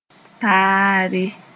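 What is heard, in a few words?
"taare", Mooré; commonality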